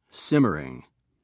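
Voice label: "simmering", British English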